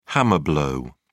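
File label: "hammer blow", British English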